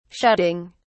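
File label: "shutting", British English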